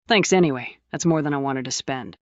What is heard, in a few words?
fem